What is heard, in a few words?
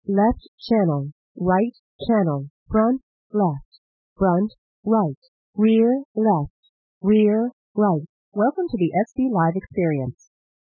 female plane noise; Plane Noise 3; After the Noise Reduction